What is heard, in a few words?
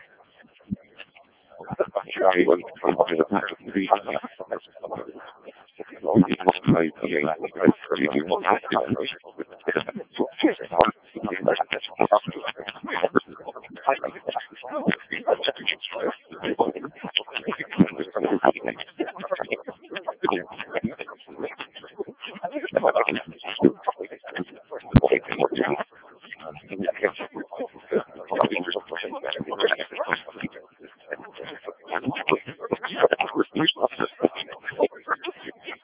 decoded speech, 7177KHz